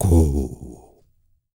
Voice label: COLOMBIAN, World Voices; ILIO; Vocal Planet VOL-5